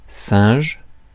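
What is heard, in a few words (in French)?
"singe", French; Mots francais phonemes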